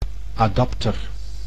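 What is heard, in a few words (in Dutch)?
"adapter", Dutch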